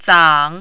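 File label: "zang3", mandarin, dipping tone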